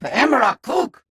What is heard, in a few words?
Grunt